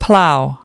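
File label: "plow", English word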